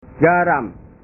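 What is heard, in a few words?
"garam", Indonesian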